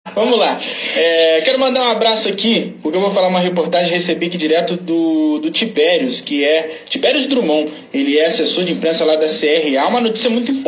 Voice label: Radio Noticias; RBP AM e FM